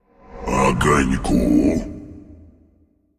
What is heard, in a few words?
Male Faceless Play